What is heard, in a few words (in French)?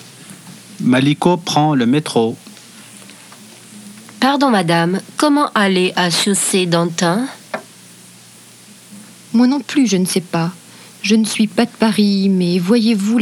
Conversation